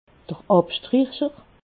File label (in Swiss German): "abstricher", alsace